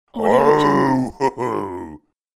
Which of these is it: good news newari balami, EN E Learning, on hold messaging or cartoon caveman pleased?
cartoon caveman pleased